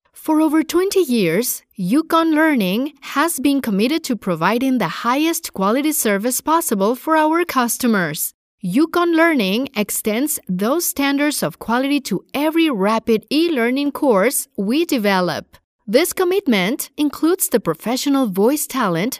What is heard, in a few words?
Hispanic Accent